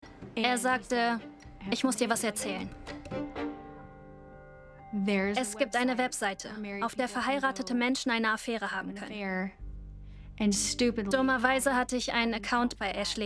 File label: VOICEOVER